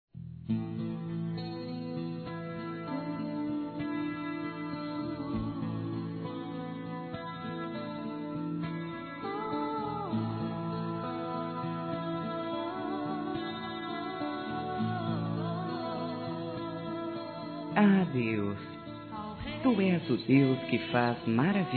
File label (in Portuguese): EVANGELICO F, Telemensagens Dia dos Pais; Telemensagens 2017